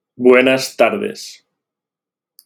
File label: voice message